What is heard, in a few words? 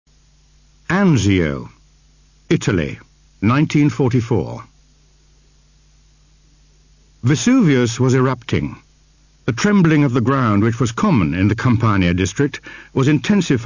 Narration